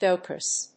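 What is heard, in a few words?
"docus", English; ディスプレイ式コンピュータ利用システム; Weblio英和辞書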